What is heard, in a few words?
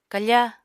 "kafa", Apatani